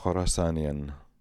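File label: "Khorassanian", pronunciations; Fourth Way; Beelzebub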